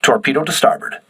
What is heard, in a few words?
voice message torpedo right, crew ships english